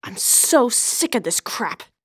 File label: Waitress Anger; zps